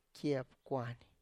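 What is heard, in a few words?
"kie pkwany", Tlacochahuaya Zapotec; finger Tip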